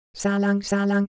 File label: Korean, ideophones; Motion